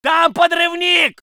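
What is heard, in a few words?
voice soviet infantry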